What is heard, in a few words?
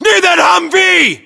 US landmark near humvee, battlechatter, english